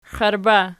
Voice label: xiarba nom, archi

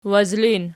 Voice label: wazlin nom, archi

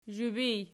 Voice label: "zhubij", Archi

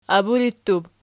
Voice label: "aburittub", Archi

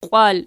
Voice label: "qiwal", Archi

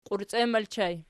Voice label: "qiurciem", Archi

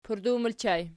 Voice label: "pardaw", Archi

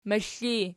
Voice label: "moxl", Archi

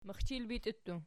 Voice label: "mixchilbitiutu", Archi

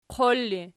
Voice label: "qol", Archi

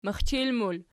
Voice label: "mixchil", Archi

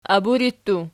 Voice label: "aburittu", Archi

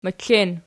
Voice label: "meklen", Archi

